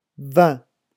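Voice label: "vin", French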